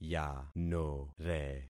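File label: "yanore", concatenated syllables; Affixing experiment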